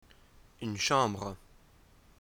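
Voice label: "room", French; tourism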